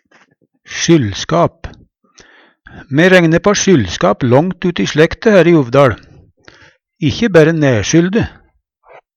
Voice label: "sjyllskap", Numedalsmål; Me regne på sjyllskap långt ut i slekte her i UvdaL, ikkje bærre nærsjylde.

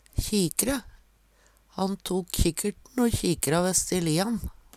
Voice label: kjikkre, Numedalsmål

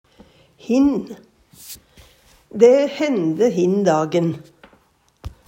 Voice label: hin, Numedalsmål; Dæ hende hin dagen.

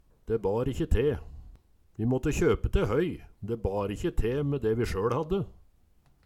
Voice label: "de bar ikkje te", Numedalsmål

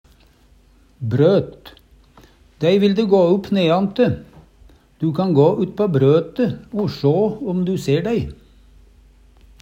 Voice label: brot, Numedalsmål

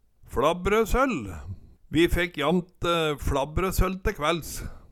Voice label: "fLabbrøsøll", Numedalsmål

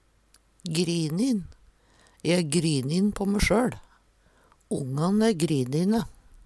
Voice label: grinin, Numedalsmål; Adjektiv

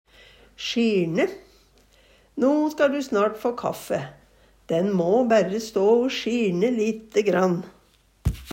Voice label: sjirne, Numedalsmål; Verb; Hushald, mat, drikke; No ska ru snart få kaffe. Den må bærre stå o sjirne lite grann.

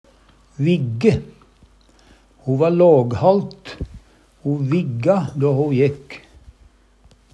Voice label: vigge, Numedalsmål; Ho va låghalt o vigga då ho jekk.

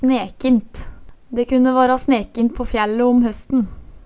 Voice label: snekint, Numedalsmål; Ordet vert ikkje bøygd.

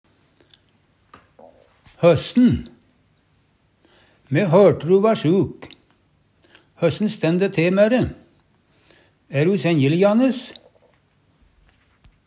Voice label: hossen, Numedalsmål; Me høRte ru va sjuk. Høssen stænn dæ te mæ' re? Æ ru senjelijjandes?

